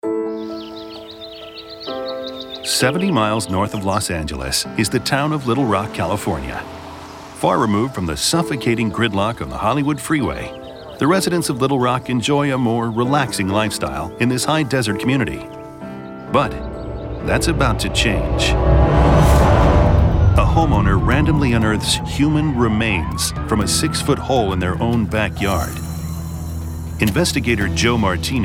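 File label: US English, Documentary; GoLocalise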